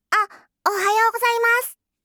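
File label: "ohayou gozaimasu", Japanese; aisatsu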